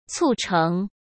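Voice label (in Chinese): "促成", Mandarin Chinese; hsk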